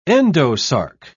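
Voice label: "endosarc", British English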